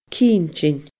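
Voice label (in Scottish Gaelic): "cinntinn", Scottish Gaelic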